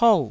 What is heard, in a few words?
"pou4", taiwanese